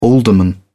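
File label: "alderman", British English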